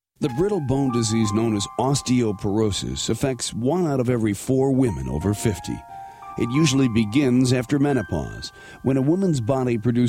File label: narration